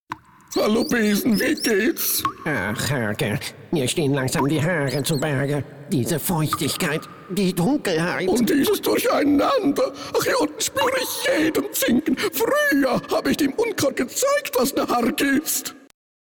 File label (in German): trickstimme